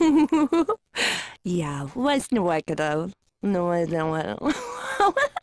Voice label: female